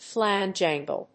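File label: "flange angle", English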